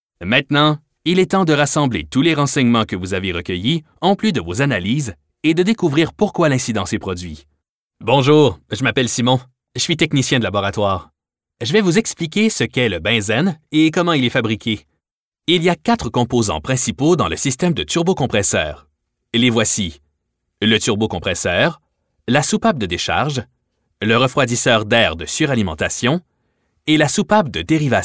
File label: eLearning Montage